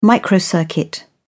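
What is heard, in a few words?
"microcircuit", British English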